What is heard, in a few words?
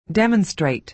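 "demonstrate", American English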